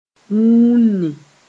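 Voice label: "wũuni", Mooré; snarl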